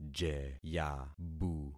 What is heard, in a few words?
"jeyabu", concat syllables; Affixing experiment